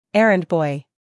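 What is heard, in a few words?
"humor", British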